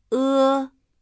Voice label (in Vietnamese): "e", tiếng trung; nguyên âm